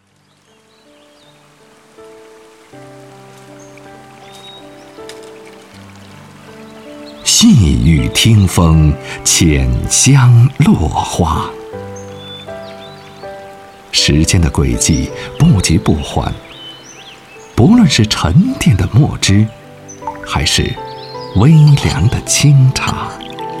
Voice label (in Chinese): 男 专题; 石雕艺术